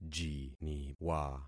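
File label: "jiniwa", concatenated syllables; Affixing experiment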